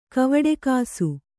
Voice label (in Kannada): "ಕವಡೆಕಾಸು", Kannada